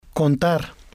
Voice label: "contar", spanish

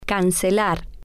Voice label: "cancelar", spanish

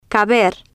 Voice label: "caber" Spanish

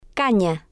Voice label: "cana", spanish

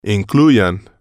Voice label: incluyan, Spanish

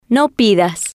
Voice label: no pidas, Spanish

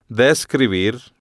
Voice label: "describir" Spanish

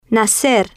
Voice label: "nacer" Spanish

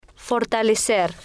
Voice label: "fortalecer", spanish

